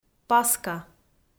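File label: "Паска", Komi, female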